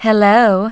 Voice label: "Hello", Smooth Babe; Worms Armageddon